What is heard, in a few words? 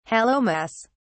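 "hallowmas", British English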